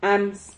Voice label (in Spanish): "hams", Silabas